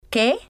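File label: "que", Spanish, female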